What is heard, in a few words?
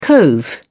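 "cove", British English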